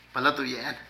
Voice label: Platillo, Zapoteco de Petapa; Vocabulary

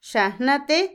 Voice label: maestro, Otomí, mujer; INALI

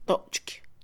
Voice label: liviano, Mixe, Mujer; Percepción; INALI

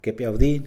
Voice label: "matraca", Mixe, hombre; Habla

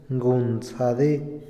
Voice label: "escuela", Otomí, hombre; INALI

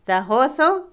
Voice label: permitir, dejar, Otomí, mujer; INALI

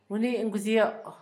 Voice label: relámpago, Zapoteco, Asunción Ocotlan; Fenómenos; INALI; Haspelmath

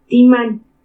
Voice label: "Tsimani", dos, Purépecha; Números

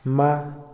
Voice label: irse, Otomí, hombre; Movimiento; INALI

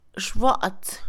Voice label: desnudo, Mixe, Mujer; Cuerpo; INALI

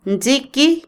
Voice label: "aceite, petróleo", Otomí, mujer; Moderno; Vocabulary; INALI

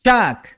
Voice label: lluvia - "Cháak", Maya

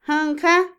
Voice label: modo, manera, Otomí, mujer; INALI

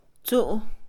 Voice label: "nuera de hombre", Mixe, Mujer; Parentesco; INALI

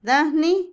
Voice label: patria, Otomí, mujer; INALI

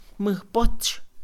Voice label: Mixe, Mujer; torre; INALI

